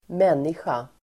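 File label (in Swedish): "människa", Swedish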